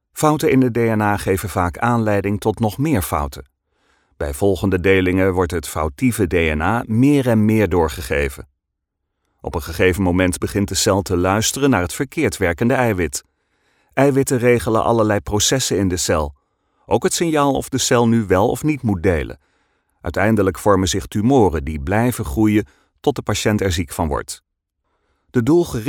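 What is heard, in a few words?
medical voice-over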